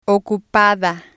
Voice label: "ocupada", spanish

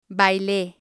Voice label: "baile", spanish

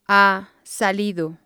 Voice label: "ha salido", spanish

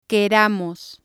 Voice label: "queramos", spanish

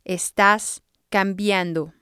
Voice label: "estas Cambiando", spanish

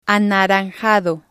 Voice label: "anaranjado", spanish

